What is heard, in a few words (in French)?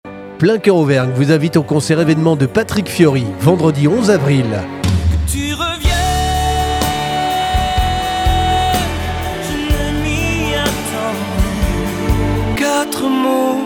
promo; PLEIN COEUR; ROANNE